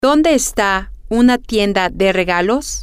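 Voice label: where Is A Gift Shop, Spanish, female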